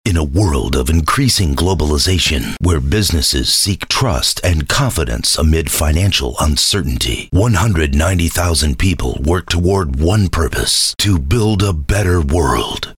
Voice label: MOVIE TRAILER